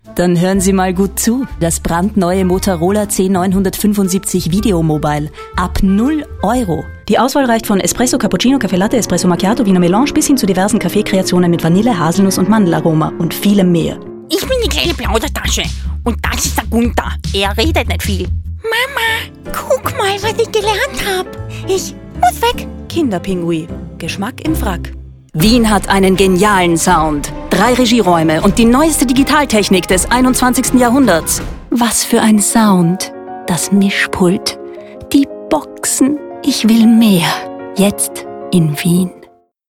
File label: German Germany Commercial; fertiger Mix